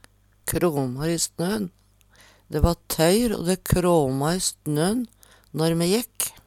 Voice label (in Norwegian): kramar i snon, Numedalsmål; Uttrykk; Vêr og føre